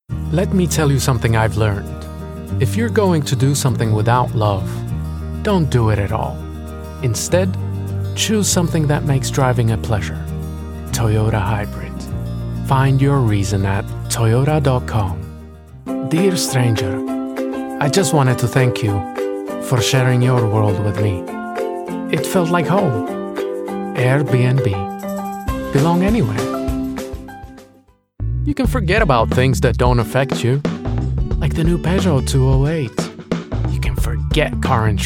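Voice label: English male, accent of czech republic; English EEU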